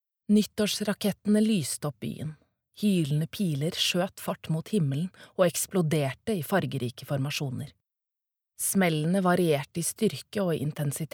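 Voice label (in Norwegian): lydbok, Norwegian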